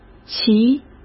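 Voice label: "其", Mandarin Chinese; chinese flashcards; hsk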